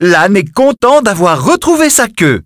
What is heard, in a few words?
French, dialogue; Donkeyendgame